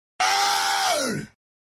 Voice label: cute anime girl saying "rawr"